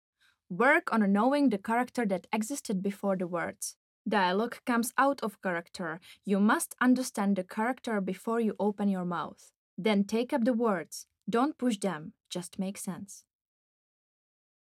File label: english